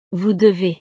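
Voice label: "vous devez", French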